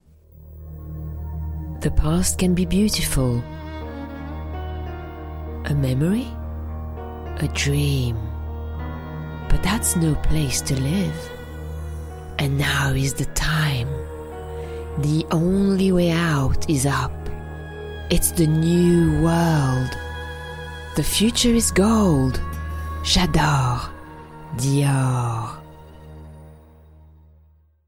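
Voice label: French accented English; GoLocalise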